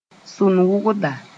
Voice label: "sʋn-wʋgda", Moore; weaver

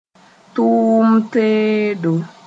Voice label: "tʋʋm teedo", moore; work instrument

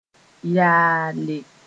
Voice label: "yaale", moore; reduce to misery

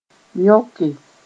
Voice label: "yõke", moore

